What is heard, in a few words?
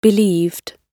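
"believed", British English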